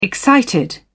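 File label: "excited", British English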